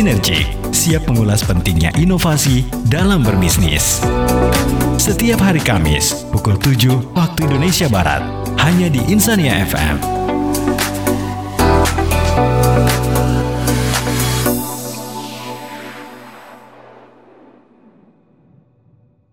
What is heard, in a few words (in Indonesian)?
RADIO TALK; EXPOSE SPESIAL; BINCANG PAGI